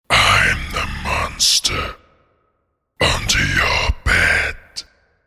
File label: Monster Under Bed Spoken, Gruff monster voice saying ‘I'm The Monster Under Your Bed’; Evil Voices